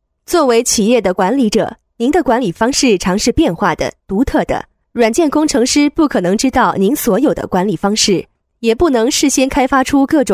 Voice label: 课件 女 沉稳; 企业管理软件

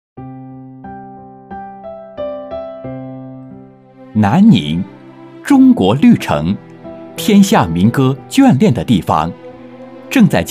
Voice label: 男 大气深情; 专题; 威牛公司

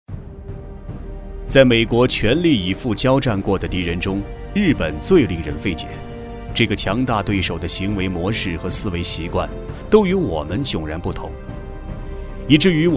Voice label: 军事题材 男 沉稳磁性; 菊花与刀

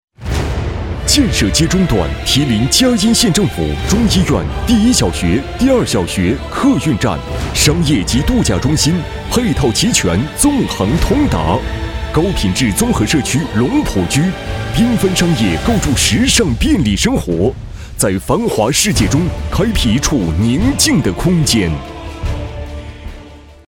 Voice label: 专题 大气激情; 中国伊春嘉荫

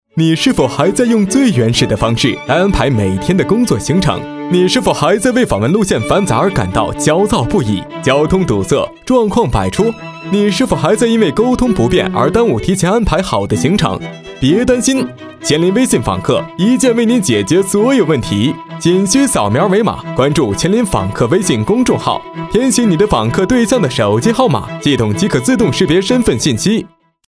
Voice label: 【飞碟说】你是否还在用最原始 广告片音乐

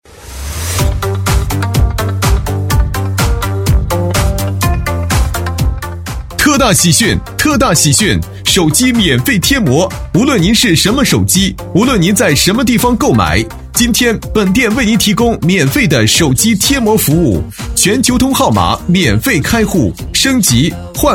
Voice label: 【促销】贴膜男 激情浑厚

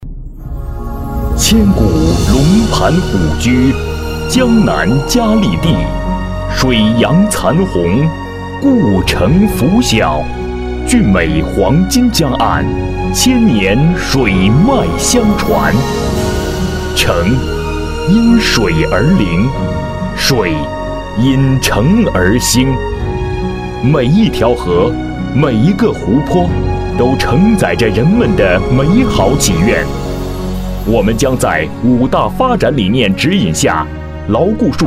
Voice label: 【专题】水宣传片男-宏伟大气

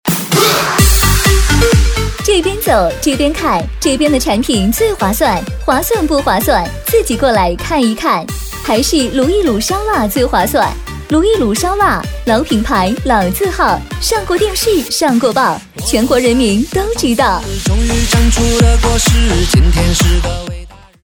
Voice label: 促销 女 激情; 老字号

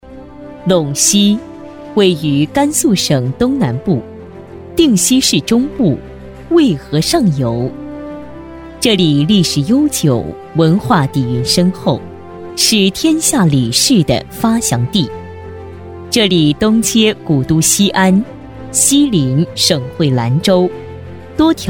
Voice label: 专题 解说词城市介绍 女 成熟稳重

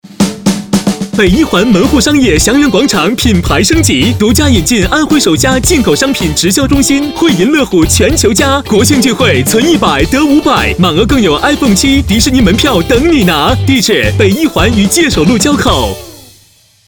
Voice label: 【广告】商场开业男-欢快时尚年轻

